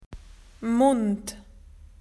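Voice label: "mouth", Australian English; PictureDictionary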